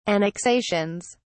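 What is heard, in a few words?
"annexations", British English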